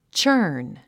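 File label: "churn", English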